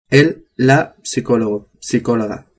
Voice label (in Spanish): "psiologo", spanish